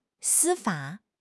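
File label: "司法", Mandarin Chinese; HSK6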